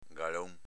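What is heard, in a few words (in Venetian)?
"galon", Veneto dialect; s.m. gamba, coscia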